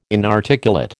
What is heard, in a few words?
"inarticulate", American